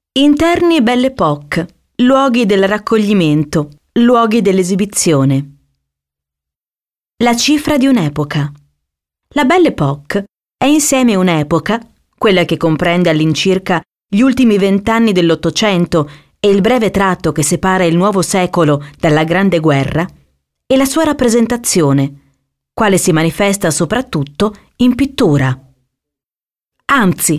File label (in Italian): audioguida